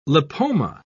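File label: "lipoma", British English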